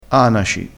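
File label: "anaci", Italian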